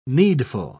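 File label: "needful", British English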